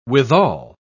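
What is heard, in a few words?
"withal", English, British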